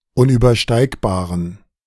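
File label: "unübersteigbaren", German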